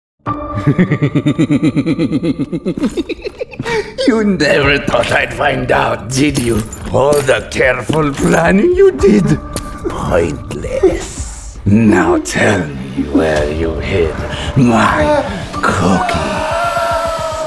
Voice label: 80s English Character